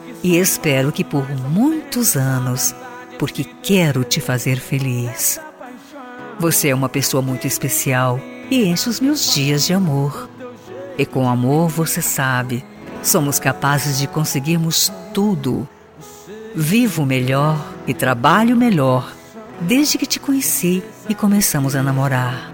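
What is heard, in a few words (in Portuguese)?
aniversario namoro feminino, telemensagem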